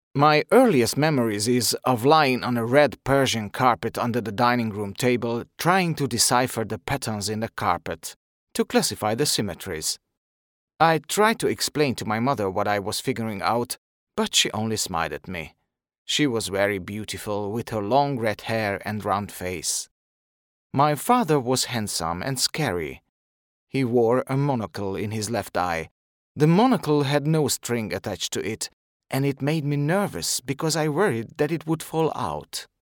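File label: Hungarian English male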